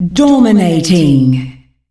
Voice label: fem dominating; Monster Hunt

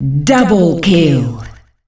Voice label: fem double kill; Monster Hunt

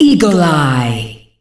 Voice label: fem Eagle Eye; Monster Hunt

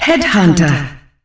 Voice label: fem Headhunter; Monster Hunt

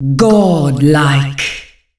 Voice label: fem godlike; Monster Hunt

